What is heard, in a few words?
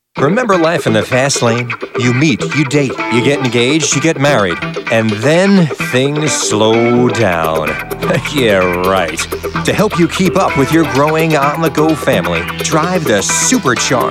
voiceover